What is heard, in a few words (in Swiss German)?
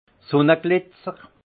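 "sunneglitzer", alsace Haut-Rhin; vin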